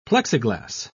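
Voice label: "plexiglass", British English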